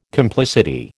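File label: "complicity", American English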